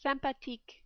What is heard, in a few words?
"sympathique", French